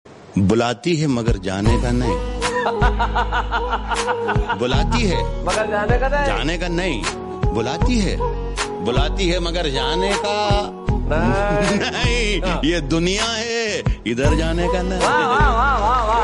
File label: Shayri hindi Ringtone